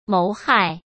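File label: "谋害", Mandarin Chinese; chinese-flashcards; hsk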